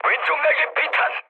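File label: Korean left wing mood med, speech; War Thunder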